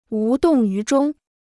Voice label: "无动于衷", Chinese

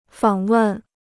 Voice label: "访问", Chinese

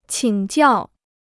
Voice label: "请教", Chinese

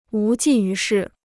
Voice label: "无济于事", Chinese

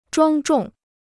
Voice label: "庄重", Chinese

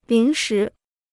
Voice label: "临时", Chinese

